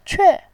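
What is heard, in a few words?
"que", Mandarin Chinese, fourth tone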